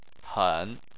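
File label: "hen", Chinese, third tone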